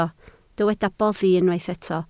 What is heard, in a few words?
"boddi", Welsh